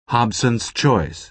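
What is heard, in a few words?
"hobson's choice", American English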